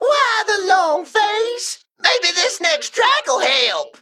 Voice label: Male Mech Emote Start